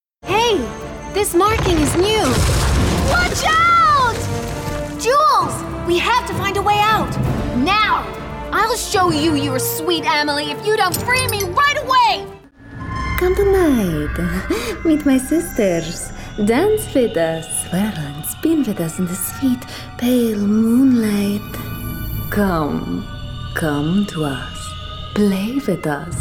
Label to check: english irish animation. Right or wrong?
Right